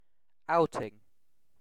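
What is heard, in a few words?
"outing", British English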